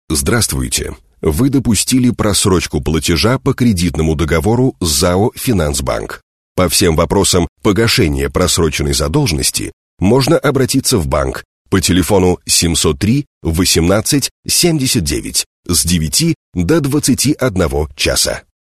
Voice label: M IVR